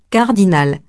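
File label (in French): "cardinal" French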